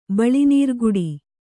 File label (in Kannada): "ಬಳಿನೀರ್ಗುಡಿ", Kannada